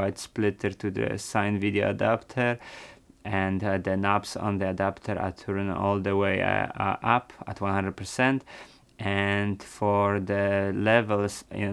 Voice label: Rode NTG2; signvideo